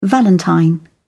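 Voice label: "valentine", British English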